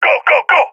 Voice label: request move, balkan; balkan epic